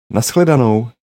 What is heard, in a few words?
goodbye, czech